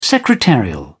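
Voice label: "secretarial", British English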